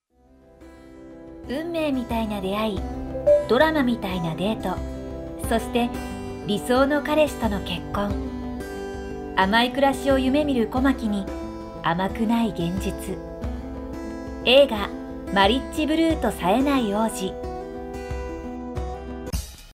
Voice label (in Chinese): 日语女 外语 甜美专题300每分 甜美